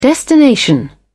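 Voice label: "destination", British English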